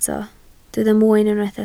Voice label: "mwyn", Welsh